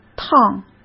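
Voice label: "趟" Mandarin Chinese; hsk; chinese-flashcards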